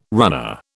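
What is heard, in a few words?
"runner", British English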